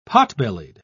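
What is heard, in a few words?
"potbellied", British English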